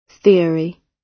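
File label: "theory", British English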